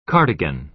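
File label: "cardigan" American English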